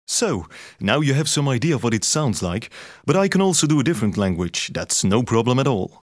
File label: belgian accent